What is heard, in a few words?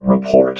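"report", robot voice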